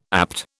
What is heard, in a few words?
"APT", British